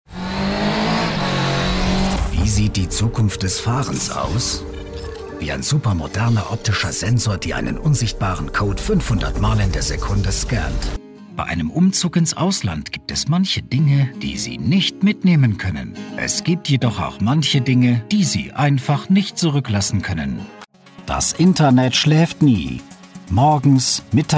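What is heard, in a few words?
German, male, German Commercials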